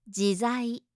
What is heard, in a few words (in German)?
"jinzai", japanische maedchennamen